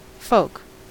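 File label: "folk", US English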